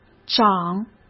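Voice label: "涨", Mandarin Chinese; hsk; chinese-flashcards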